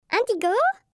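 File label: INDIGO, French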